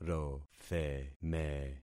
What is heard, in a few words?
"rotheme", concat syllables; Affixing experiment